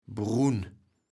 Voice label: "brun", kaevels platt

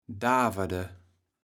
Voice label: "daverde", kaevels platt

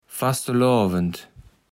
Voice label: "Fastelovend", kaevels platt

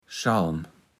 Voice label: "Schalm", Platt

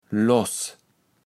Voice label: "loß", kaevels platt

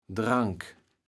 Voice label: "Drank", kaevels platt

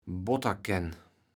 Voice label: "Botterkenn", kaevels platt

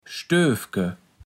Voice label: "Stööfke", platt

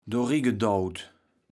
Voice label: "doringedaut", kaevels platt

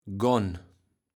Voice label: "gon", kaevels platt

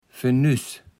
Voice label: "Fenüss", Platt

